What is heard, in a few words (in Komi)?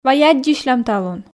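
вай аддзысьлам талун, Komi, female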